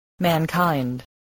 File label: "mankind", spelling voice